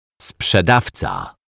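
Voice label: "sprzedawca", Polish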